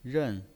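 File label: "ren", Mandarin Chinese pinyin voice, fourth tone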